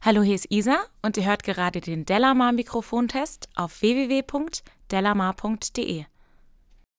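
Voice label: speech female; rode nt2a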